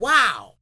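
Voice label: wow, female spoken; ILIO; Vocal Planet VOL-4; R&B & Dance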